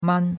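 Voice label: "man", Chinese, first tone; Cours-de-Chinois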